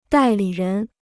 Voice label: "代理人", Chinese

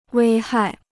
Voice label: "危害", Chinese

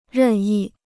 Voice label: "任意", Chinese

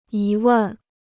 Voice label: "疑问", Chinese

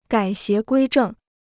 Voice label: "改邪归正", Chinese

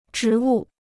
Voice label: "植物", Chinese

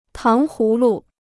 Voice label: "糖葫芦", Chinese

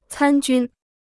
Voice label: "参军", Chinese

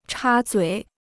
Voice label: "插嘴", Chinese

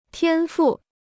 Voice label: "天赋", Chinese

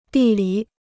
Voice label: "地理", Chinese

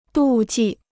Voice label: "妒忌", Chinese

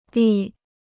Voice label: "力", Chinese

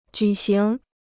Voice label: "举行", Chinese

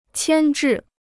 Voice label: "牵制", Chinese

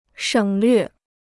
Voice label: "省略", Chinese

